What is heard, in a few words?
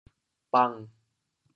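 "bang7", Teochew, chaoyang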